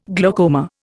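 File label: "glaucous", Indian; Dictionary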